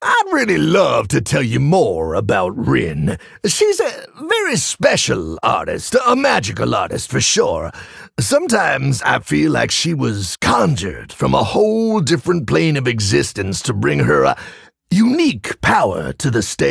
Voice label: Male Tauren